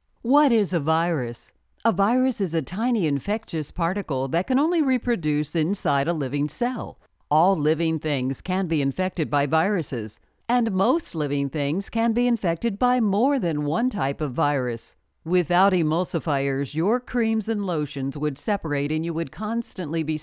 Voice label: e-learning